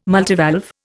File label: "multivalve", Indian